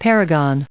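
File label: "paragon", us English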